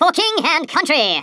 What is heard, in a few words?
"kamikaze", Stiff Upper Lip; Worms Armageddon